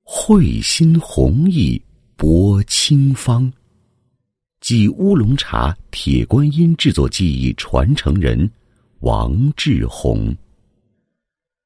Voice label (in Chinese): 男 人物; 乌龙茶 铁观音 制作技艺传承人